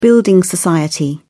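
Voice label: "building society", British English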